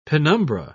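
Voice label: "penumbra", British English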